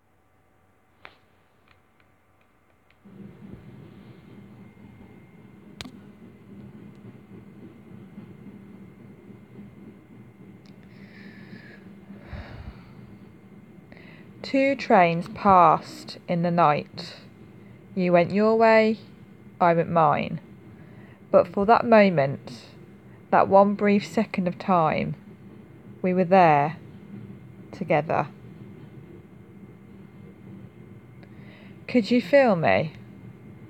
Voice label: F4M Erotic poetry; Missed Connection